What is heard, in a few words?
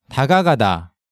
"Dagagada", Korean